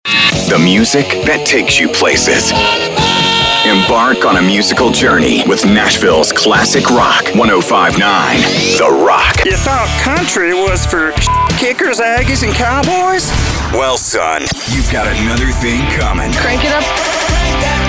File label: RADIO Imaging General; All-American Voice